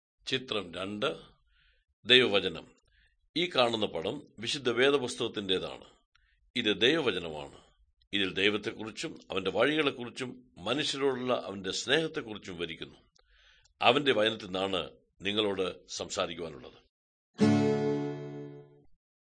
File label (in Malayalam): good news malayalam, drama